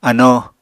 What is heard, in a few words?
"ano", Apatani